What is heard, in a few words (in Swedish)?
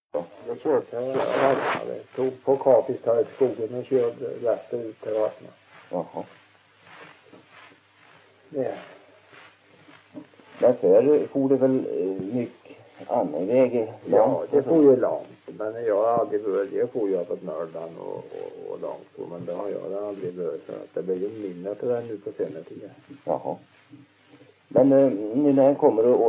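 Sunne Värmland, dialekter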